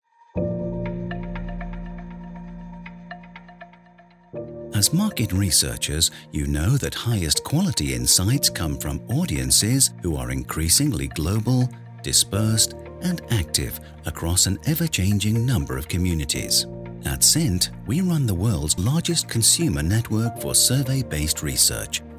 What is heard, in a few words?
British Voiceover Explainer Video; CINT Ad Hoc Audiences